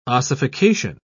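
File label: "ossification", British English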